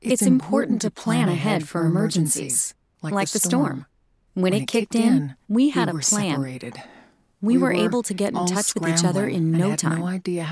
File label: PSA; Emergency Preparedness; Double Exposure